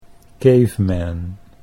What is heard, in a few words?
"caveman", Dutch English